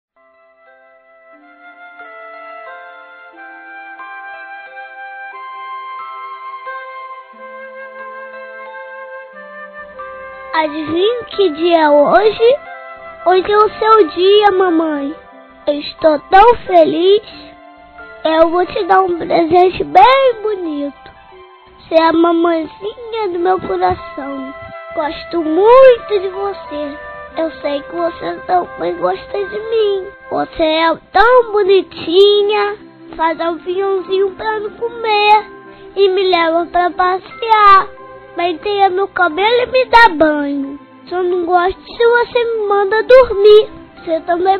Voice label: MÃE VOZ INFANTIL M; Dia das Mães; Masc; Telemensagens 2017

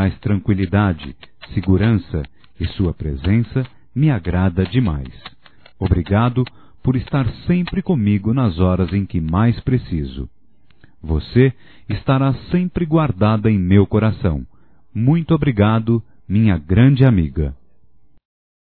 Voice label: Amizade Para Amigo Masculino, Só Voz; Telemensagens; Telemensagens 2017

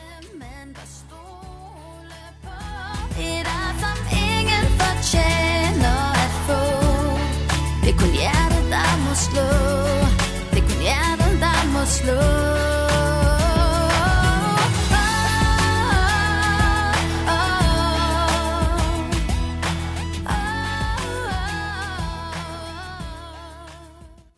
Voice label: Aniversário Atrasado (Amiga) Feminino; Aniversários; Aniversários diversos

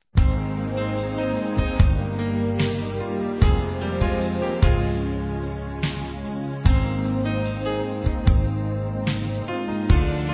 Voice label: IRMÃO EM CRISTO M; Desculpas; Telemensagens 2017

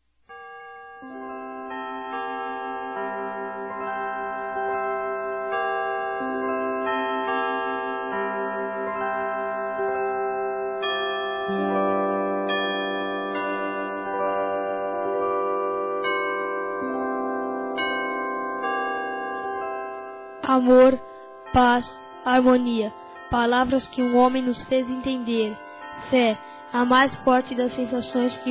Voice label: NATAL VOZ ADOLESCENTE, masc; Telemensagens; Natal e Ano novo; NATAL(NEUTRO) VOZ ADOLESCENTE

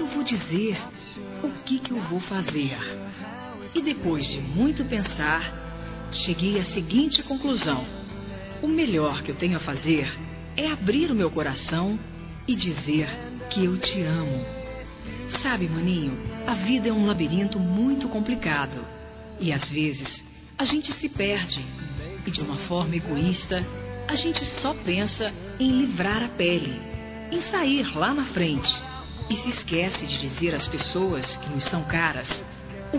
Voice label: ANIV. IRMÃO (F)

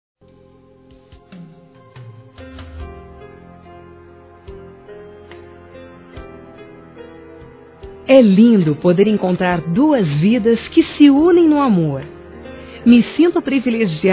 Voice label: Aniversário Casamento Geral Feminino; Aniversários

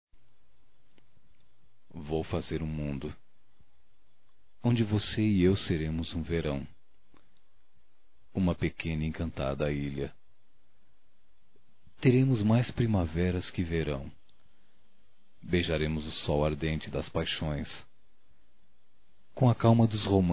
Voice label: Romantica Poesia Para Amantes Masculino; Românticas

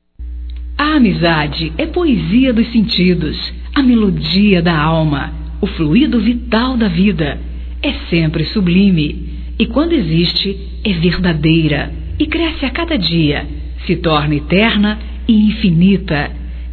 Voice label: Amizade Geral Feminino, Telemensagens

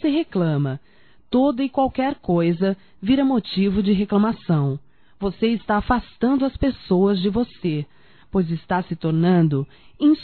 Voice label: Pare de Reclamar Mulher para Marido Feminino, Broncas; Telemensagens 2017

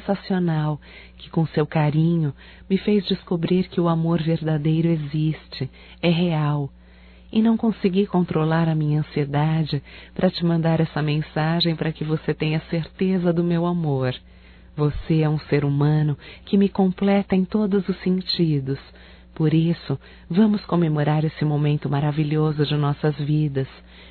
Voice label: ANIV DE NAMORO (F), Telemensagens Românticas fem

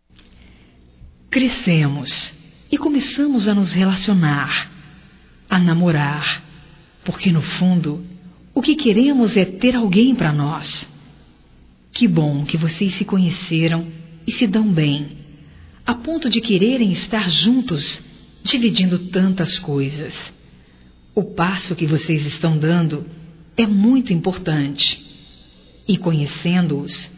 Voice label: Parabéns ao Pai Masculino; Telemensagens 2017

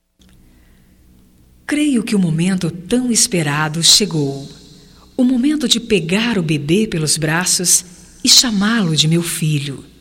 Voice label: PARAB NASC DO BEBE, fem

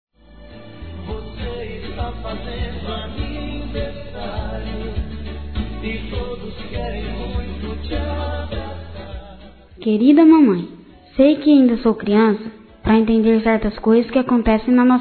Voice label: Aniv. Mãe infantil Masculino; Telemensagens 2017; Aniversários

